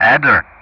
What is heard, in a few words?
"Adder", Speech ENG; Ka-50; Player Callsign; DCS